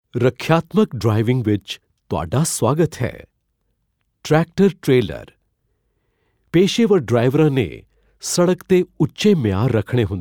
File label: punjabi